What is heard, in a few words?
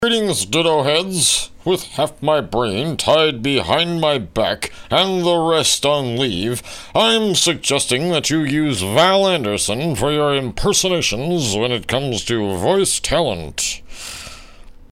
Impersonation; Rush Limbaugh